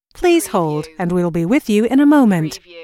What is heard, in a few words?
US female phone prompt Please hold and we will be with you in a moment; lynne publishing